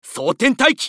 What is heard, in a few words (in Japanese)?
男 選択; マスケッティア